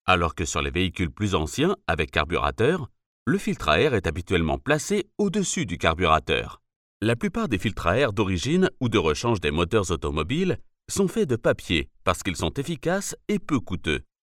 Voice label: male french voice over talent narrative